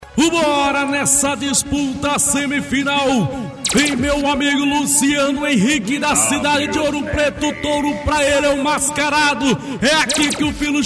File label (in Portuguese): locucao de rodeio